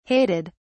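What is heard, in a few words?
"hated", British English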